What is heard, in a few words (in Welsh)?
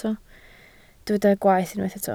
"gwaeth" Welsh